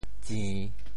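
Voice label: "tsi~3", Teochew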